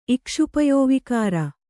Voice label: "ಇಕ್ಷುಪಯೋವಿಕಾರ", kannada

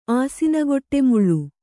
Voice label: "ಆಸಿನಗೊಟ್ಟೆಮುಳ್ಳು", Kannada

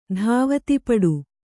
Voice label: "ಧಾವತಿಪಡು", Kannada

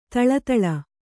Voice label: "ಥಳಥಳ", Kannada

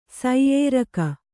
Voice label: "ಸೈಯೇರಕ", Kannada

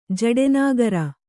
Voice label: "ಜಡೆನಾಗರ", Kannada

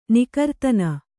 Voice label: "ನಿಕರ್ತನ", kannada

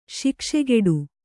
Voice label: "ಶಾಕಶಾಕಟ", Kannada

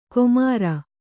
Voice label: "ಕೊಮಾರ", Kannada

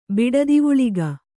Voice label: "ಬಿಡದಿವುಳಿಗ", kannada

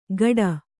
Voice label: "ಗಡ", Kannada

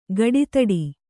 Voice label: "ಕುಡುದಡಿ", Kannada

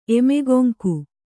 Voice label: "ಎಮೆಗೊಂಕು", Kannada

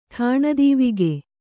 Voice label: "ಠಾಣದೀವಿಗೆ", Kannada

